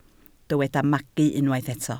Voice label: "magu", Welsh

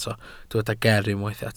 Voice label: "geer", Welsh

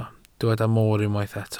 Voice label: "moor", Welsh

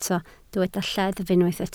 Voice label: "lleddf" Welsh

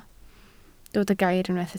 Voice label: "gair" Welsh

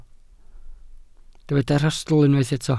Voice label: "rhastl" Welsh

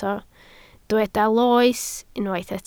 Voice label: "loes", Welsh

